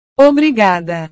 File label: "obrigada", portugues